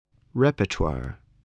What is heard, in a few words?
"repertoire", English